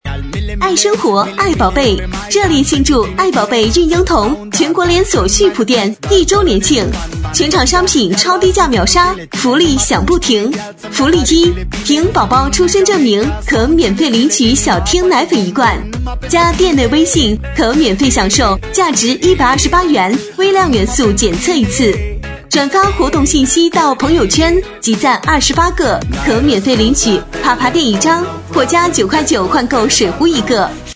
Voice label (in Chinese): 女声 孕婴童连锁; 爱宝贝